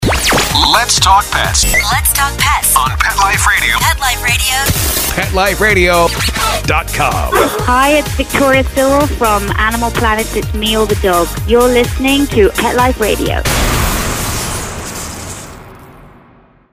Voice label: Generic Sweeper; PetExpo